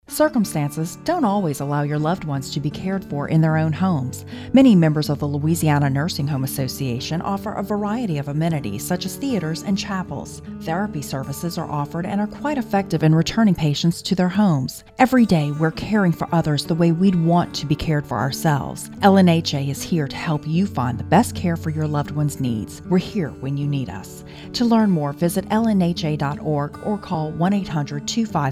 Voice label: positive radio spot